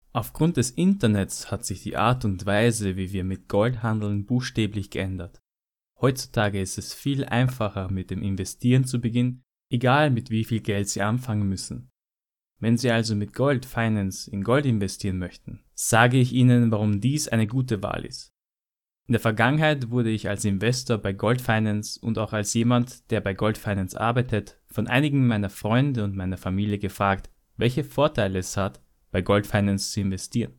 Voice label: German, Male

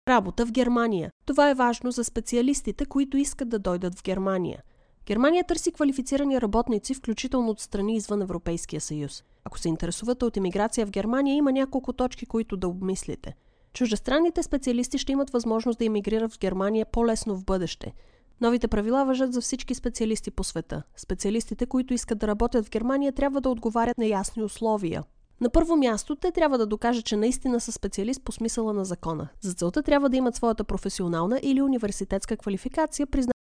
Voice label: Bulgarian, Female

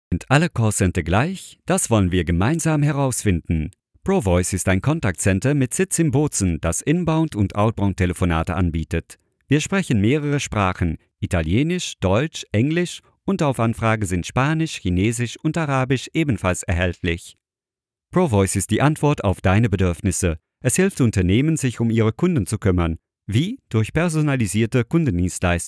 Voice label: German Male

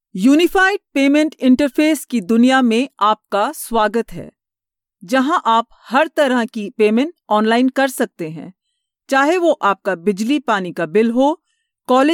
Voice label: Hindi, Female

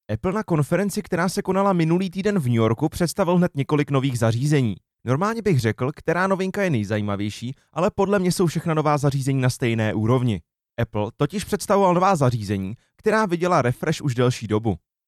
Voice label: Male, Czech

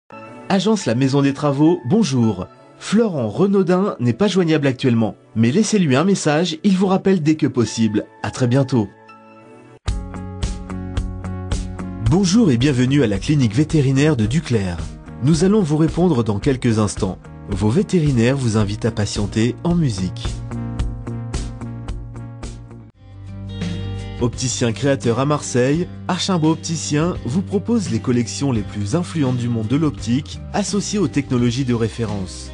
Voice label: French, Male

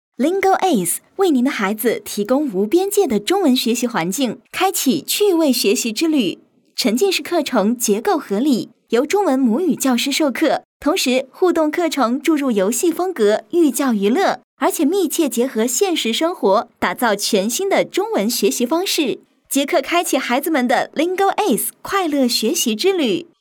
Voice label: Chinese Female

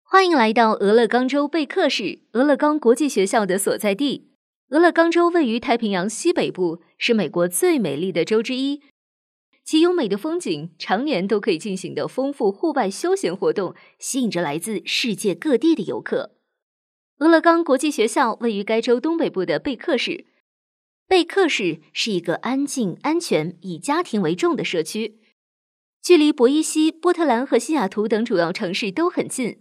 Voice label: Chinese Female

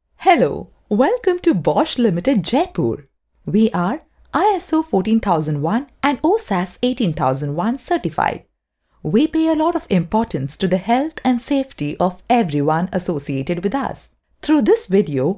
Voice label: Female, English, India

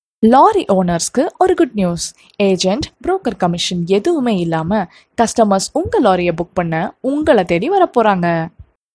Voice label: Tamil, Female

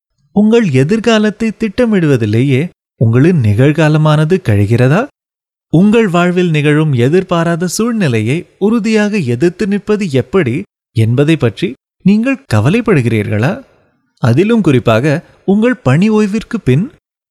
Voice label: Tamil Male

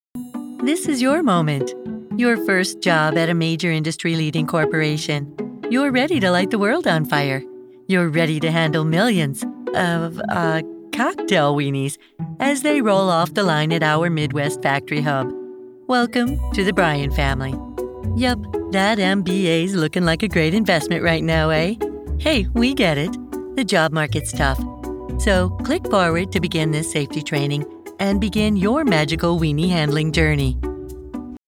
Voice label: English (US), Female

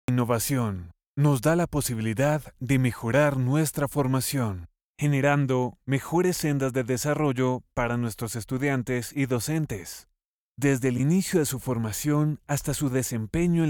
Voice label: Spanish (LA), Male

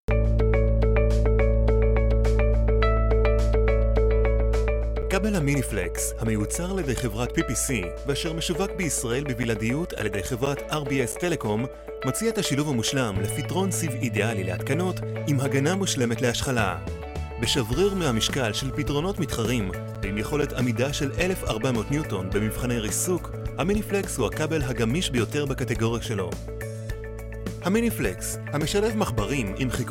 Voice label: Male, Hebrew

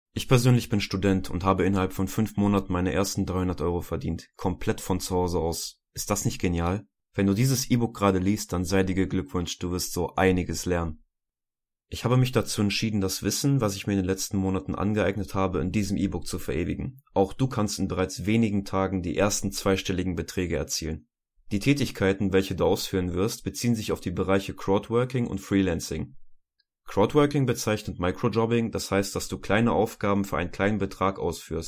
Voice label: German, Male

